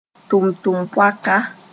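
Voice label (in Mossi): "tʋmtʋmd-poaka", Mooré; servant (female)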